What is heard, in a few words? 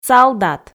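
"салдат", Komi, female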